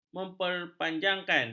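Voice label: "memperpanjang", Malay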